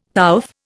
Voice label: "pouffe", Indian; Dictionary

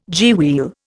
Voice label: "gearwheel", Indian

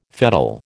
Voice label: "fettle", American

